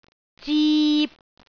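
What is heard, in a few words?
"zip3", Cantonese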